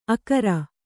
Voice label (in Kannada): "ಅಕರ", kannada